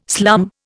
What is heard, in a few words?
"slum", Indian